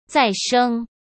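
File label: "再生", Mandarin Chinese; chinese-flashcards; hsk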